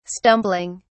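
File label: "stumbling", English, uk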